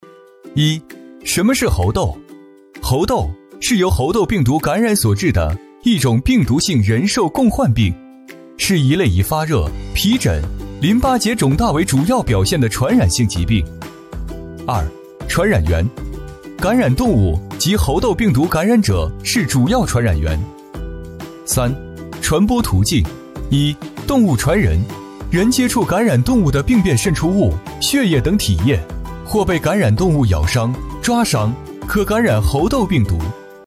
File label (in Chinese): 男 MG 科普动画; 猴痘